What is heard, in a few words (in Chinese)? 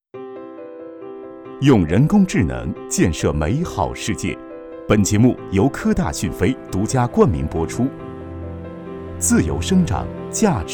男 节目宣传 口播; 广东卫视